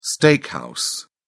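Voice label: "steakhouse", British English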